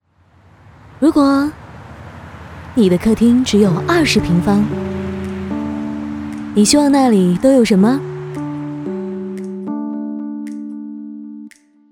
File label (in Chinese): 广告 高端; 国际油画中心